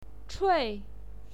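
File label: "chui", Mandarin Chinese, fourth tone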